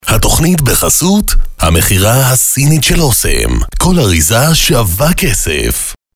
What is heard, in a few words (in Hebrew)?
קריינות; לחסות אסם